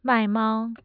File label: "mai4 mao1", Mandarin tone